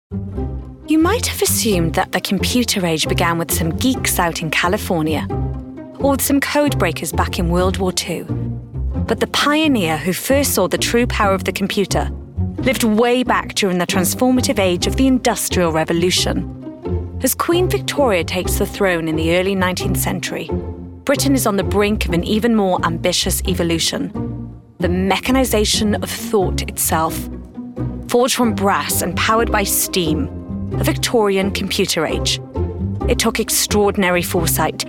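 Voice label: documentary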